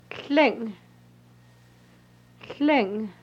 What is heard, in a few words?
dog horse, hupa